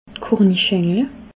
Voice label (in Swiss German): "kornischengle", alsace